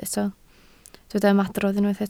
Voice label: "ymadrodd", Welsh

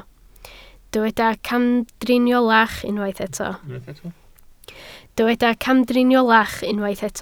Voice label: "camdriniolach", Welsh

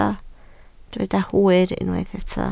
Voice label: "hwyr" Welsh

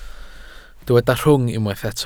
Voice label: "rhwng", Welsh

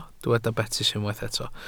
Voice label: "betys", Welsh

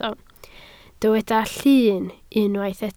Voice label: "llun" Welsh

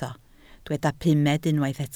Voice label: "pumed", Welsh

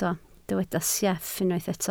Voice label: "sieff", Welsh

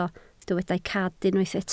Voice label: "cad", Welsh

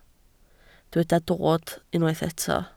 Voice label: "dod" Welsh

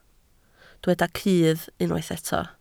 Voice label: "cudd", Welsh

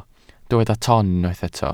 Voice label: "ton", Welsh

